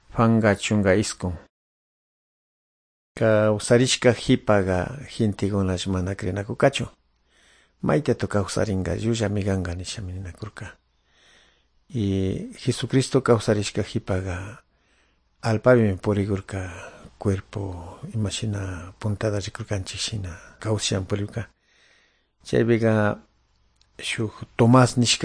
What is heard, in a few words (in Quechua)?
good news quichua de calderon; quechua; bible